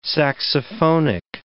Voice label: "saxophonic", English

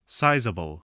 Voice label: "sizeable", voice, spelling

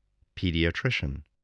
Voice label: "pediatrician", English, voice

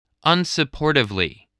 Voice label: "unsupportively", English spelling voice

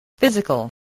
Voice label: "physical", voice, English

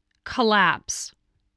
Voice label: "collapse", voice

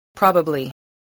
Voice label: "probably", voice, spelling

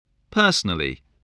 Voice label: "personally", voice, English

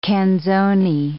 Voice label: "canzone", English

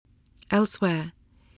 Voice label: "elsewhere", spelling

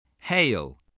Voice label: "hail", spelling

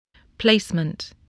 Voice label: "placement", English

